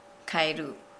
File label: Nukuoro; toad